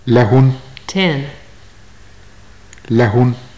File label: numbers, maya